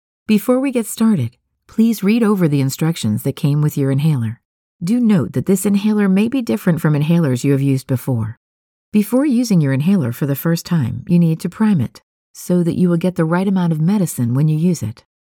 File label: medical explainer